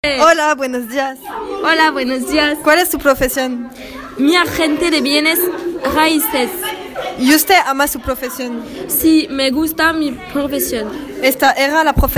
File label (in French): Interview Espagnol